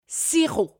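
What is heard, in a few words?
"sirop", French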